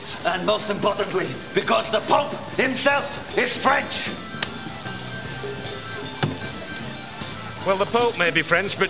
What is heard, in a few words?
french; knightstale